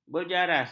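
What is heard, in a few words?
"berjaras", Malay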